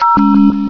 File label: "deeoo", vox; S.P.L.U.R.T-Station-13